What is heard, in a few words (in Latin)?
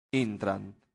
"intrant", latin; santillana